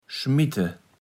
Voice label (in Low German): "schmitte", Platt